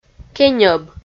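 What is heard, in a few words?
"кеньӧб", Komi, female; kpv; audio dict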